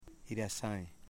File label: "leaf", Foe